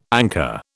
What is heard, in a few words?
"anchor", British